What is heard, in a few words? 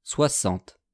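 "soixante", French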